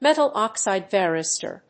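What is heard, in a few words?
"metal oxide varistor", English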